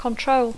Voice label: "control", English

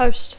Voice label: "host", English

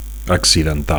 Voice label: "accidental", Catalan; acting

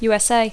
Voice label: "USA", English

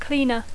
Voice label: "cleaner", English

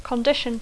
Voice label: "condition", English

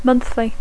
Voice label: "monthly", English

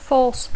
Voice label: "force", English

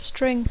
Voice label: "string", English

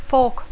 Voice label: "fork", English; forquilla; forca; bifurcació

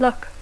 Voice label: "luck", English

